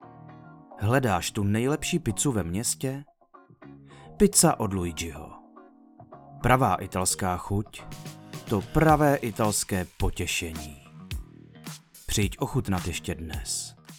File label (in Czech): Reklama